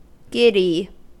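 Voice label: "giddy", American English; pronunciations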